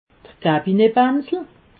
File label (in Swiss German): "kabinetbansel", alsace